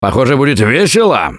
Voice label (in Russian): take melee, left4dead2 russian, Survivor Voice; Gambler